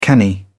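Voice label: "canny", British English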